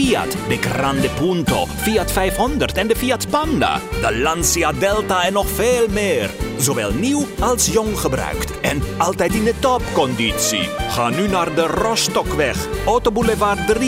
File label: radio commercial Italian accent